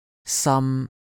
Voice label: "sam1", cantonese